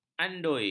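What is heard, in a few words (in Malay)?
"andui", Malay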